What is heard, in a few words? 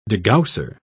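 "degausser", British English, dictionary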